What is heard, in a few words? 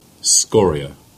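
"Scorrier" Cornish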